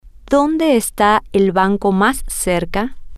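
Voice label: where is the closest bank, Spanish, female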